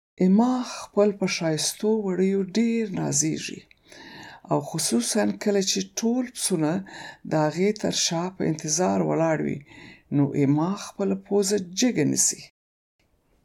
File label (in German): paschtu